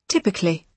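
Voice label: "typically", British English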